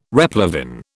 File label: "replevin", British